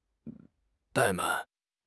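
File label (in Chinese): "怠慢", Chinese; HSK6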